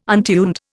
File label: "untuned", Indian